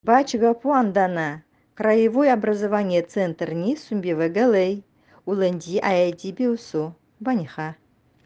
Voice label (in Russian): Нанайский